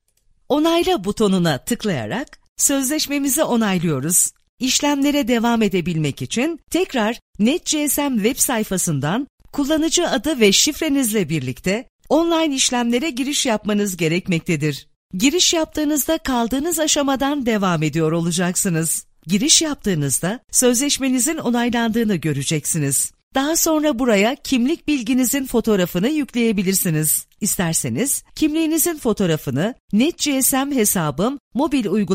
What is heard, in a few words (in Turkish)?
operator bilgilendirme egitim; net gsm